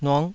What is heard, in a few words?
"nuk5", taiwanese